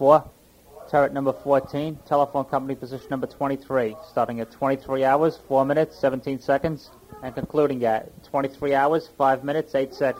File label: 911 Call